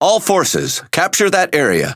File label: Speech Quick Commands Enemy Point, EN; World Of Warships